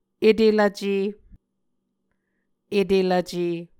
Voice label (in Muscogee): "etelvce", Muscogee